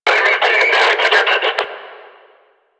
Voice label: Player Spotted Attack, Barks Battle; Warframe; Lasrian; Lasrian Enemy A

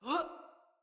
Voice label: Roll Female, Battle Barks; Envoy

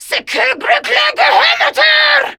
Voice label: Attack, Female

